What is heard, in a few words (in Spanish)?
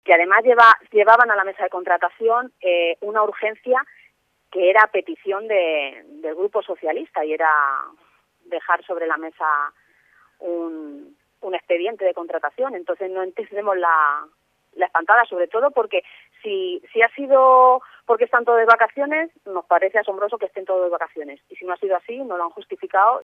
noticias